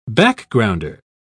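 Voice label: "backgrounder", British English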